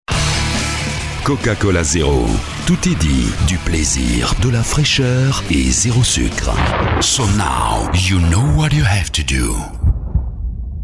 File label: Comercial radio